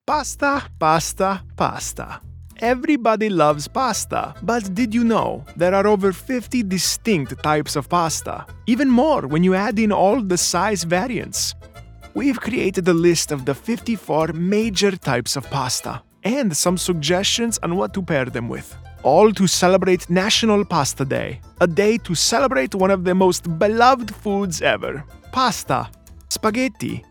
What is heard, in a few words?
Italian Accent